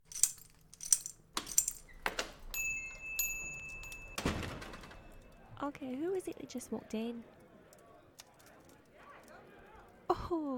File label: [F4M] [Scottish; The Armorsmith's Daughter; Fantasy; Meet Cute; Scottish Accent; frenemies; Brash; Flirty; SFX Heavy